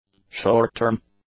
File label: "short-term", English; adjectives